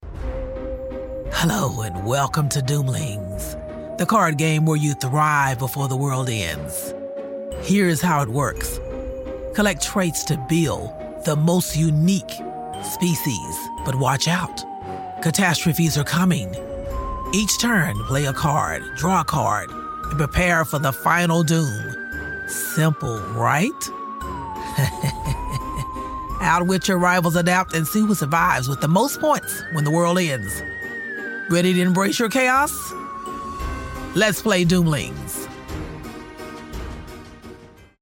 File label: trailer; doomlings